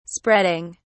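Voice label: "spreading", English, British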